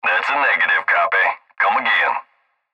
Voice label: Cb Trucker Radio; Radio Voice; Blastwave

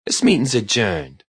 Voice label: Meeting Adjourned, Male

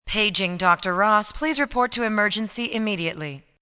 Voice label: Paging, Paging, PA, female; Pa Announcement; Paging Ross FX; VoiceBox

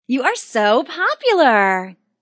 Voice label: Teen Says You're So Popular; Talking

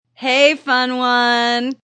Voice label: Woman Says Hey Fun One; Woman talking; Sound Effects:Voice

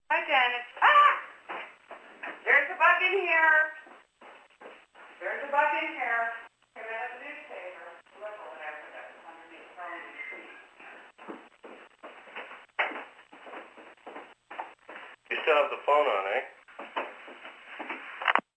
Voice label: Phone Bug In Here, Female & Male, Telephone Voice

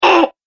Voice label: Cartoon Game Voice Urhh

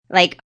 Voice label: Young Lady Says Like; Talking

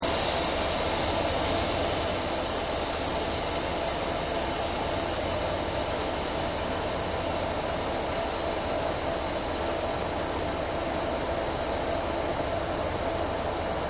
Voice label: Train Station Announce, Pa Announcements; Voice

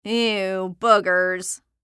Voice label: Cartoon Voice Female; Cartoon Voices; Blastwave

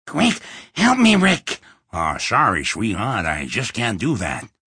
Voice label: Help Sorry, Male; HelpRickSorry; Talking Sound Effects; Sound Effects:Voice; VoiceBox

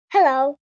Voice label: "Hello", 1 child, 5 yrs.; Talking; Greeting; Voice Box

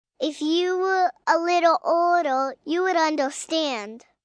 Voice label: If You Were Older, Adult Phrase, 1 girl, 5 yrs; Talking Sound Effects

